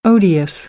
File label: "odious", American English; oʊ - əʊ